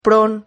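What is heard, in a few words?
"pron", Spanish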